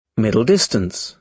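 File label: "middle distance", British English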